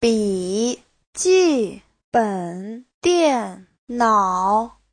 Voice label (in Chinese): "bi3ji4ben3dian4nao3", Chinese; 笔记本 电脑; (danh từ) máy tính xách tay